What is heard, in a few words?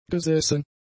"gesessen", German; adjectives